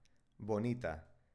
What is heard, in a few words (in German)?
"bonita", spanische maedchennamen